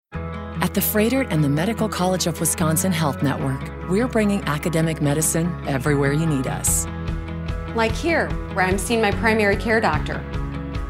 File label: Voice Over Commercial; Froedtert MCW 2019